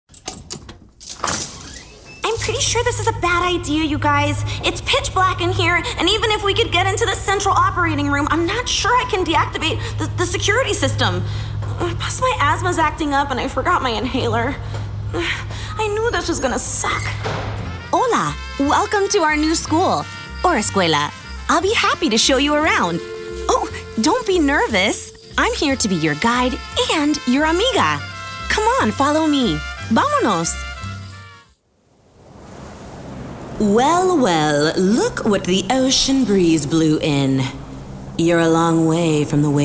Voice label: Character